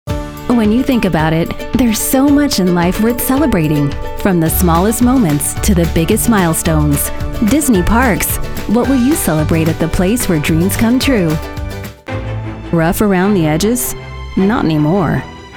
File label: Commercial